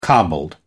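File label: "cobbled", British English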